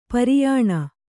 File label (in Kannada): "ಪರಿಯಾಣ", Kannada